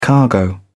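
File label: "cargo", British English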